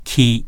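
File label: "ki", Japanese; hiragana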